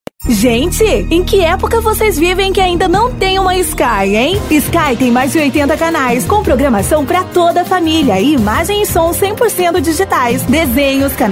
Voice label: locutora producao de offs vinhetas spots comerciais

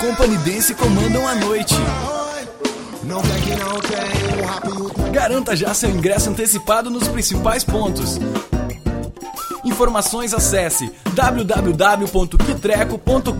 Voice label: Spot anuncio de show; criativa produtora

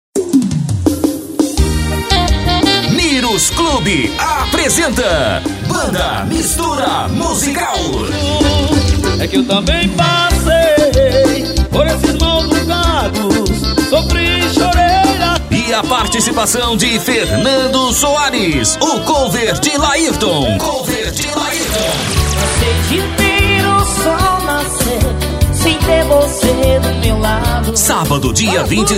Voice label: Chamada, Vinhetas e Comerciais; mirus clube

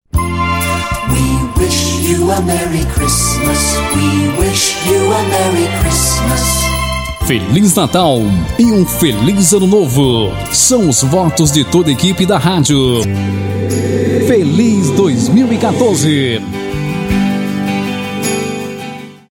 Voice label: Vinheta feliz natal e ano novo, Locutor

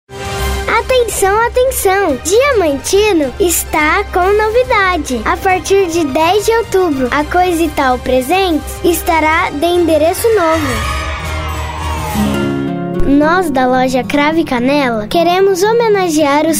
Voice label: vinhetas offs locutora

